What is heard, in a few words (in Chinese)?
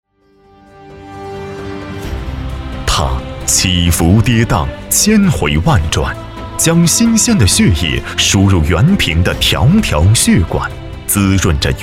专题 政府 浑厚